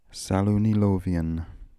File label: "Salounilovian", pronounciations; Fourth Way; Beelzebub